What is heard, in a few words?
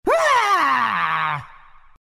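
pain, Chinese; Dota 2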